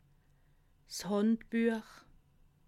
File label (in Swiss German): "handbuech", alsace